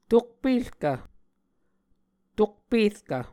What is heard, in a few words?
"tokperkv", muscogee